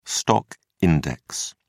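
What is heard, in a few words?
"stock index", English, British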